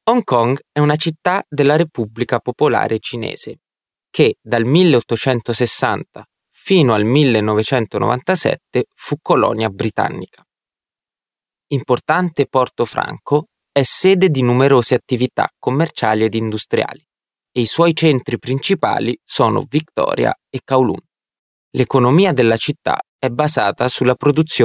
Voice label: Male Italian